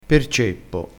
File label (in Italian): "ceppo", crespinese